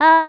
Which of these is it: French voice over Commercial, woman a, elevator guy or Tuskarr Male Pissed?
woman a